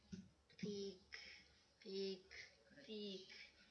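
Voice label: "pick", spoken word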